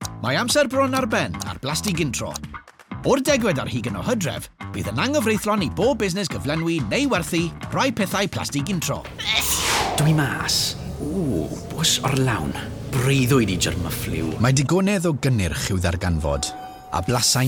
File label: Welsh commercial; GoLocalise